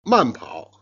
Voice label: "jian", Chinese

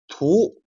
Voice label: "jian", Chinese

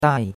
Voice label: "dai4", chinois; Cours de Chinois

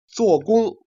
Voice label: "jian", Chinese

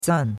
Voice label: "zan4", chinois; Cours-de-Chinois

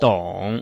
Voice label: "dong3", Chinese

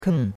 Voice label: "ken4", chinois; Cours de Chinois

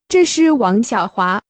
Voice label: Mandarin Conversation

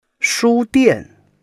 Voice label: "shu1 dian4", Chinese

